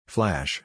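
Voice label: "Flash", English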